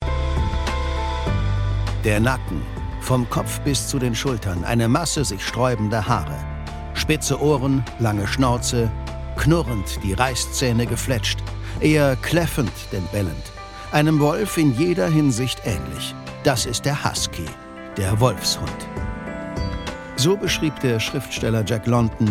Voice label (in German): Kommentar, maenner; Husky